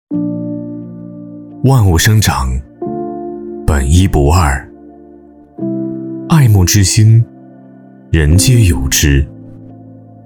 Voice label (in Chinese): 男 形象宣传; 走心企业形象片样音